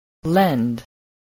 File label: "lend", spelling